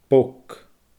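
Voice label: "bog", Scottish Gaelic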